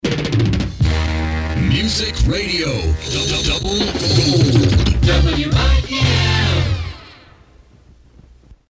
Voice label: Promo